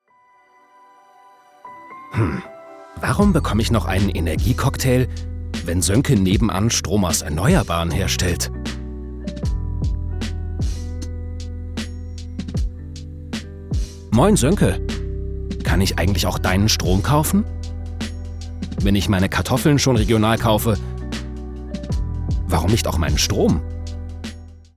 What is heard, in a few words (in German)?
imagefilm; regiongroon2